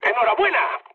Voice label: player killed enemy, mood med, speech